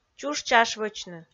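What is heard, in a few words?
"чуш-чашвочны", Komi-Zyrian, female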